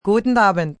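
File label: Good Afternoon German, Greetings, female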